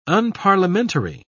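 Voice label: "unparliamentary", British English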